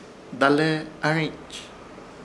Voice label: "Dalehearhĩch", Ishir Chamacoco; ir a saludar